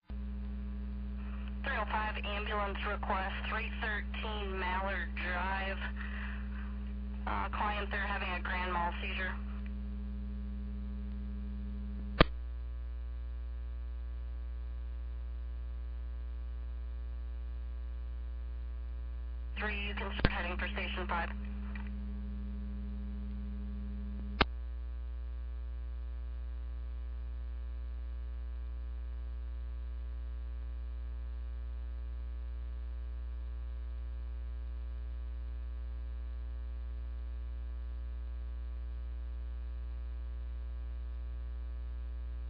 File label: tsa alert page